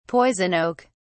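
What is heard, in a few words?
"poison oak", British English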